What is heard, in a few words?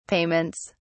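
"payments", British English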